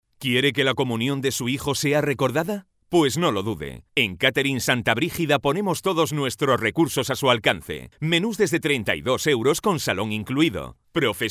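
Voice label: locutor spanish voiceover, male; Spanisch Sprecher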